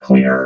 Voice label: "clear", robot vox

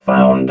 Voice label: "found", robot vox

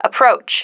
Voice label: "approach", female

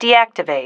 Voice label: "deactivate", female

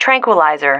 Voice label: "tranquiliser", female

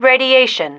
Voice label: "radiation", female

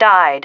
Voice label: "died", female

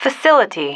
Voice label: "facility", female

